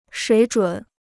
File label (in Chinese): "水准", Chinese